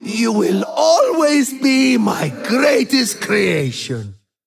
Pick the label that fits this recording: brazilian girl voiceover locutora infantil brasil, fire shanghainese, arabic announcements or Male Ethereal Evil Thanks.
Male Ethereal Evil Thanks